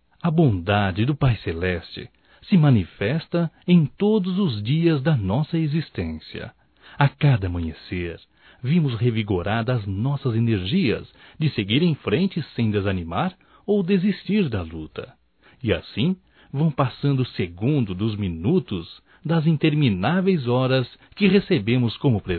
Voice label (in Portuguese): ANO NOVO NEUTRO (M); Natal e ano novo; Telemensagens 2017